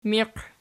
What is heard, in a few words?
"miiq", Archi